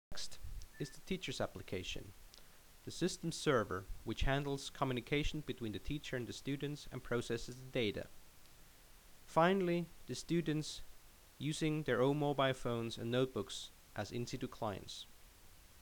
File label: Narration